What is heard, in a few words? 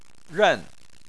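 "ren", Mandarin Chinese, fourth tone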